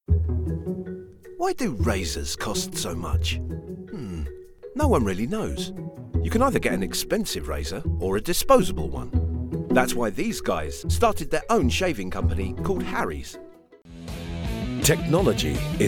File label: english british commercial